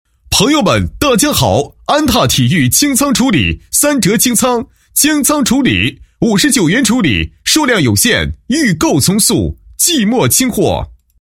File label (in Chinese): 男 促销广告; 安踏体育清仓处理